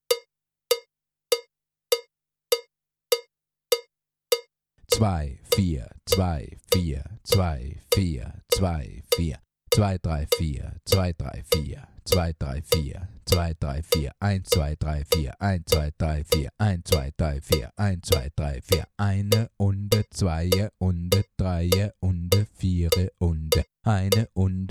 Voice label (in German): Einzaehlen Metronom auf e (deutsch)